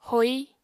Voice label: "hoi", apatani